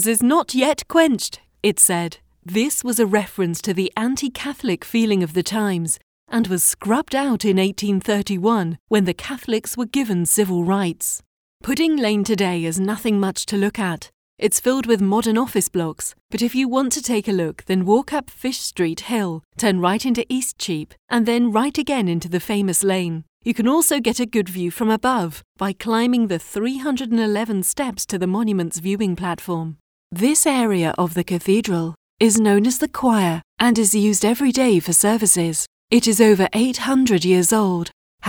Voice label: Audio Guide

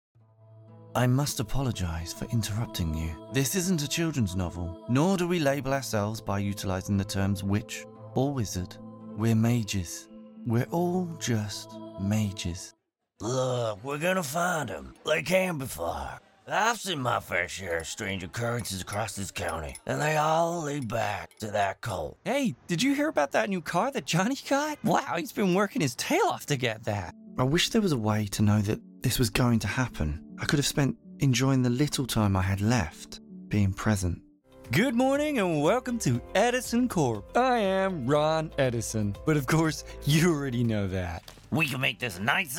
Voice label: Character Reel

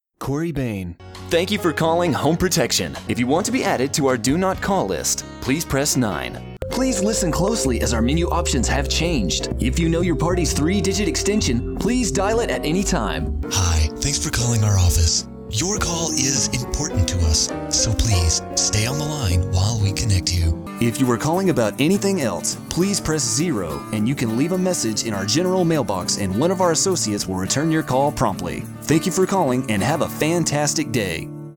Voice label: Phone

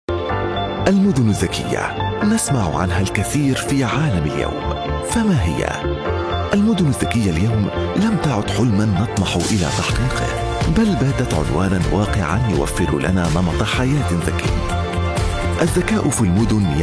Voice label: Arabic Male commercial; Expo Doha 2022

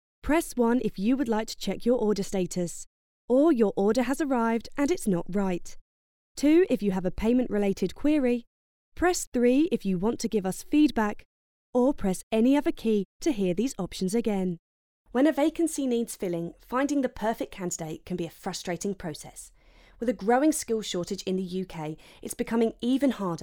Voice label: Dry

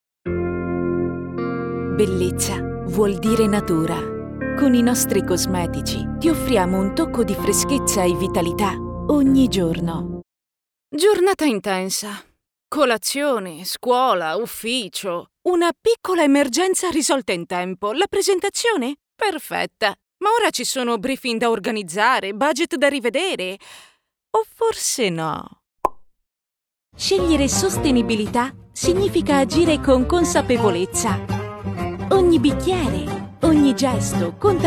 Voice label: Spot

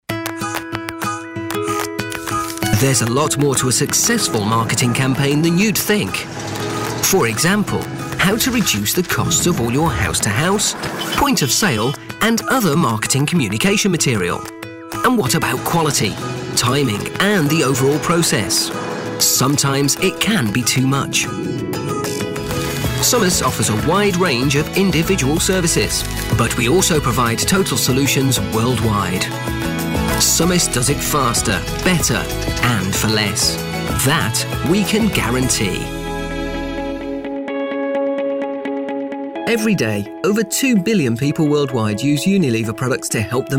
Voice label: Corporate Sample

